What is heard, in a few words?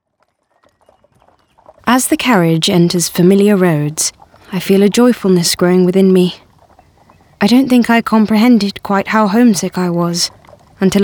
narration